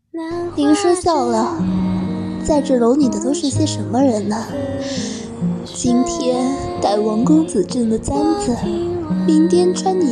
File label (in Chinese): 古代女子配音